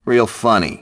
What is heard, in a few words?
stay alert, grunt; nimble grunt